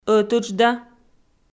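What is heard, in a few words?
"ӧтыджда", Komi, female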